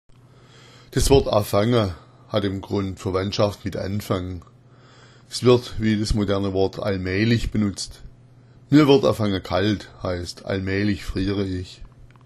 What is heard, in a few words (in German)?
affanga, schwaebisch